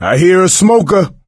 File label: heard smoker, coach, survivor voice; source engine; left 4 dead 2